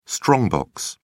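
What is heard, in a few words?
"strong box", British English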